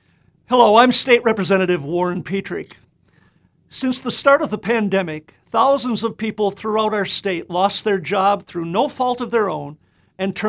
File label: Radio; Petryk